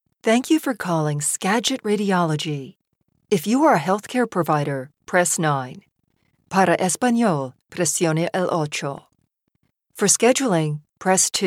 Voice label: IVR messaging